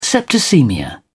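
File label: "septicaemia", British English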